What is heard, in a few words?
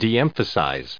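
"de emp", US English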